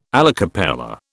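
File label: "alla cappella", British English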